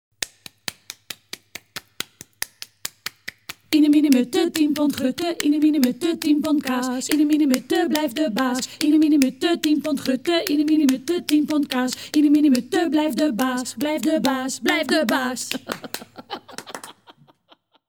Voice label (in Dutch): Iene, miene, mutte, tien pond grutten, Rijmpjes en versjes voorgelezen